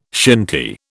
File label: "shinty", British